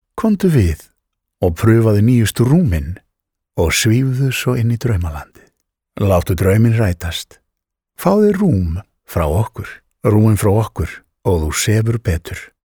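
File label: is soft, Icelandic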